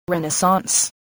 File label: "renaissance", spelling voice